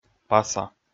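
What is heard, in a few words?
"паса", Komi, male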